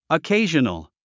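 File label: "occasional", English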